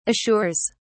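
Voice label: "assures", British English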